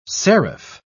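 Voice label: "serif", British English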